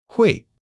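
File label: "hui4", Chinese; HSK1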